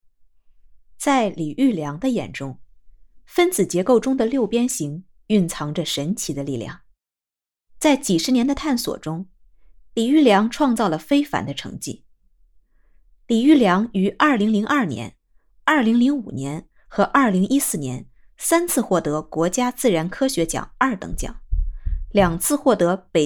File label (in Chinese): 女播; 人民院士我与共和国同龄